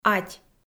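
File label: "ать", Komi, female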